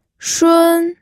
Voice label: "shun1", pinyin